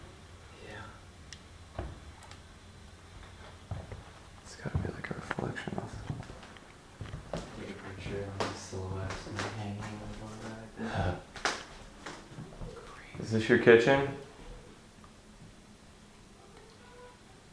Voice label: childs voice in kitchen